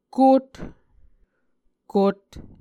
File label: "kot", Muscogee